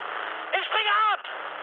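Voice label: German bailout mood med, speech; War Thunder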